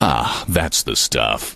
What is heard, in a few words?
cheer